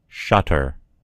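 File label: "shutter", American English; gcompris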